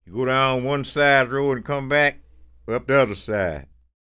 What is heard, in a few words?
STORY, SPOKEN